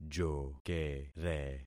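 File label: "jokere", concatenated syllables; Affixing experiment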